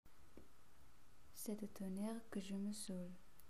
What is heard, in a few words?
french